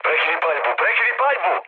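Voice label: Serbian player friendly fire mood low; War Thunder; speech srb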